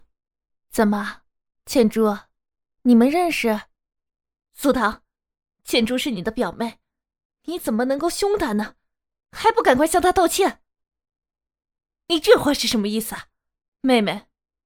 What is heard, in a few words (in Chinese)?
中年音